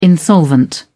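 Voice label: "insolvent", British English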